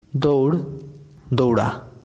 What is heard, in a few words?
"Dour/ doura.", Sylheti; Run